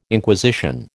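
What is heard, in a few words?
"Inquisition", American English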